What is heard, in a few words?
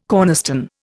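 "cornerstone", Indian English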